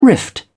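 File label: "rift", British English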